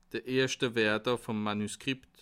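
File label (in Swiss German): "werter", Bas Rhin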